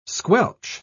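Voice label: "squelch", English pronunciation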